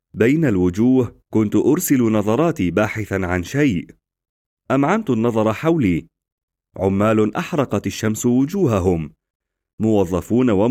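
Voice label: Arabic, Audiobook; GoLocalise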